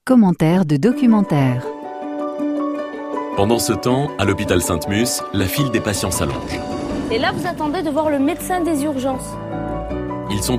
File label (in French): VOIX, voix masculines; voixoff